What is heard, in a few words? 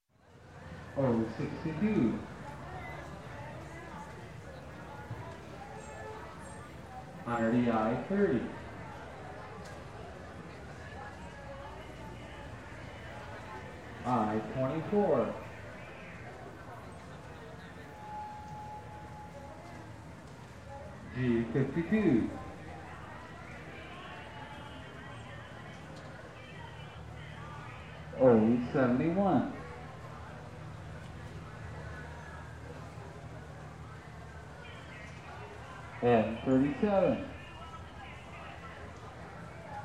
Fair, Amusement Park Bingo, Barker Calling Out; Numbers; Sound Ideas 2000 Series